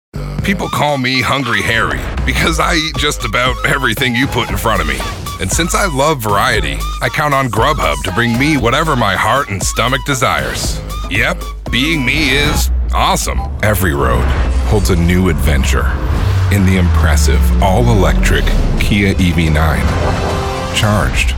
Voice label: Commercial